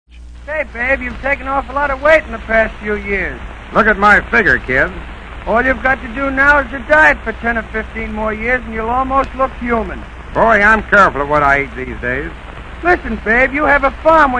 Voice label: Comedy Bit; Babe And Lou